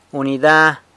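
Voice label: "unida", unit, San Lucas Quiaviní Zapotec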